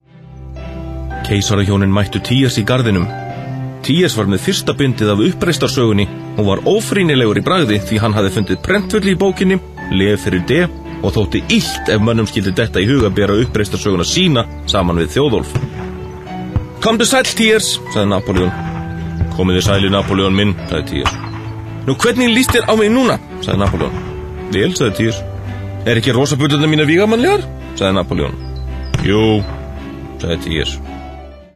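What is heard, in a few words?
Icelandic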